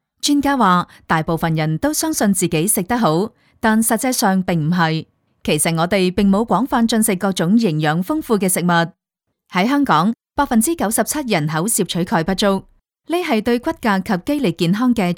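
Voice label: Cantonese, female, Commercial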